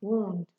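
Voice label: "wound", Nigerian Pidgin, female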